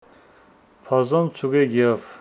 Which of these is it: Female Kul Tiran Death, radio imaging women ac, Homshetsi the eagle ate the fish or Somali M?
Homshetsi the eagle ate the fish